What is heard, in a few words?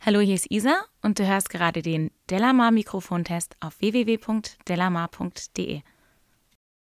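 speech female beyerdynamic m160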